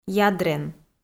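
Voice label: "ядрен", Komi, female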